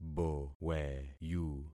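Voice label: "boweyu", concatenated syllables; Affixing experiment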